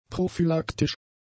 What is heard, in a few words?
"prophylaktisch", German; adjectives